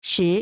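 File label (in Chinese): "时", Chinese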